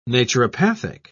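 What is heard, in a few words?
"naturopathic", British English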